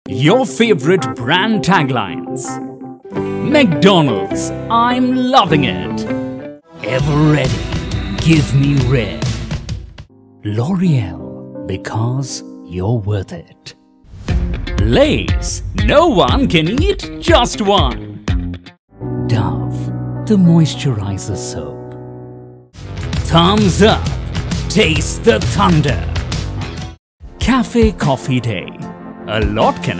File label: English Neutral